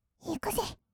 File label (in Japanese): いくぜ, kawaii voice; ASMR; 色々なワンショット